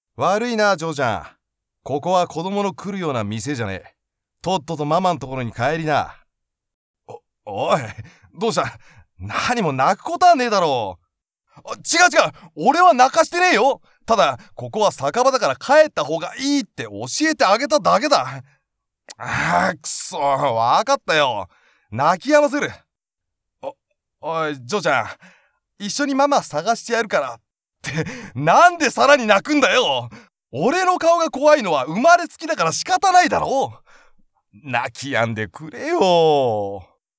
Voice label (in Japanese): 強面お兄さん